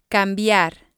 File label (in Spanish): "cambiar", Spanish